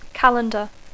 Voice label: "calendar", English